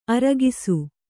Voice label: "ಆರೊಗಿಸು", Kannada